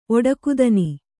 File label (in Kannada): "ಒಡಕುದನಿ", Kannada